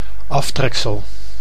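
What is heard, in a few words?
"aftreksel", Dutch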